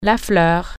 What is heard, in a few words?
flower, french